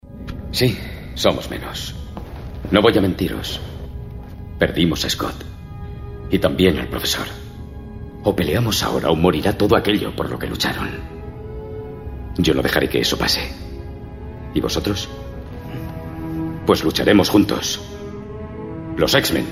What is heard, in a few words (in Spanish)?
Masculino